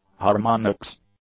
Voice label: "harmonics", English